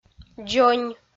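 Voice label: "дзонь", Komi, female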